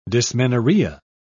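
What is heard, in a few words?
"dysmenorrhea", British English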